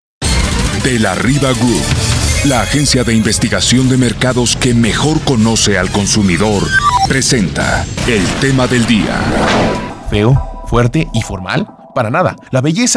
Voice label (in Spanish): CAPSULA HOMBRE ADULTO